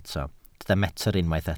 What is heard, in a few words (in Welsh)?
"metr", Welsh